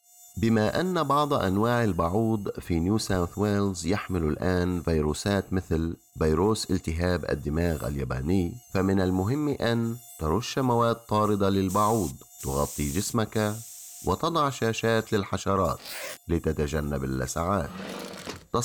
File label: radio arabic; mosquito-borne